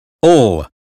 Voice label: label, US phonetics sound; 英语音标发音（剑桥版）